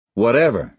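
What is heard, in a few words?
"whatever", American English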